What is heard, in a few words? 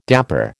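"dapper", American English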